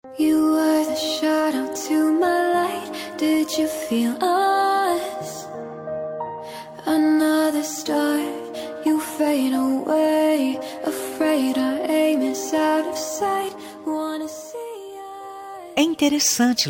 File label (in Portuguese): dia dos namorados amante, voz feminina; dia dos namorados amantes voz feminina